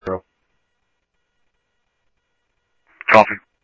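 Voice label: Mesa Police Department, scanner audio trunk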